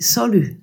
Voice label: hello, alsatian

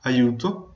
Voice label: help, venetian

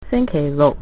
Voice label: saturday, cantonese

